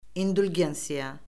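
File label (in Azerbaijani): "indulgensiya", Azerbaijani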